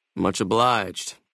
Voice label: male adult gambler asks; FNV